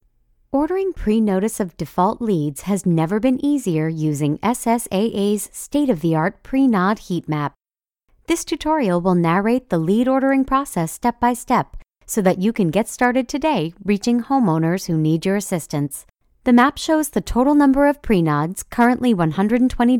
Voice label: Corporate, American Corporate; Voice-over demos